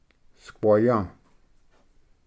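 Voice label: "squaya", Cherokee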